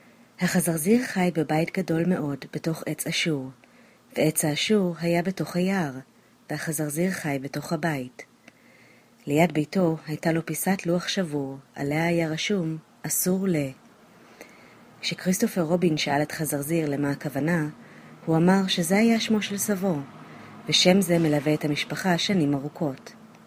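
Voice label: Hebrew narration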